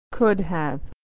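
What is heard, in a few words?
"could have", American English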